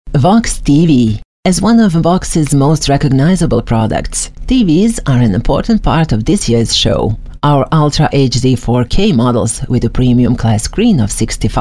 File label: English, female voice actor, Non-Native English, Corporate; Phone Greetings / On Hold; female voice actor located in Belgrade, Serbia